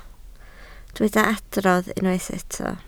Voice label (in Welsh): "adrodd", Welsh